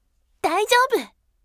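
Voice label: voice daijoubu bright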